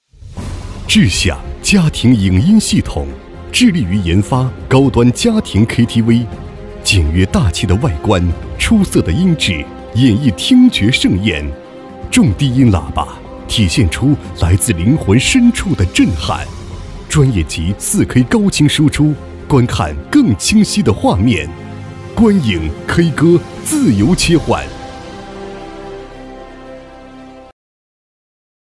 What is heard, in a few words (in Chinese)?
国语男 广告 家庭影音; 致享